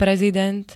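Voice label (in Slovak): "prezident", Slovak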